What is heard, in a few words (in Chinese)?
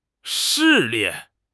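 "视力", Chinese; HSK6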